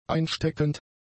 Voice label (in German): "einsteckend", german; adjectives